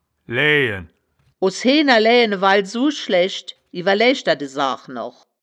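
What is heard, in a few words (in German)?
"laeaejen", wittlicher woerterbuch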